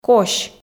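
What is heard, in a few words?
"кось", Komi, female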